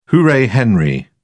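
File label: "hooray henry", British English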